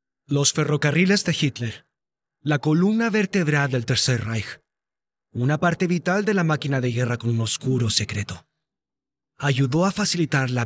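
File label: Spanish Latin American, male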